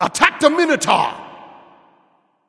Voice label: vox attack m; smite; monkey; voicepack